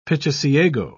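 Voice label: "pichiciago", British English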